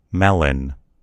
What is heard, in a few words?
"melon", en US; gcompris